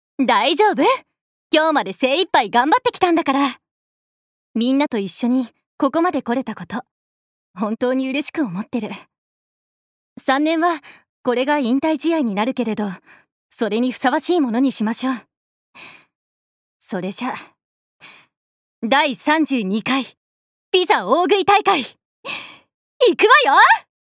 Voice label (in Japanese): アニメ